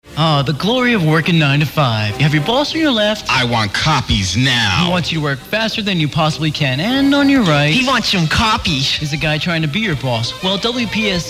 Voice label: PROMO Music Requests